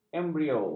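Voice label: "embrio", Malay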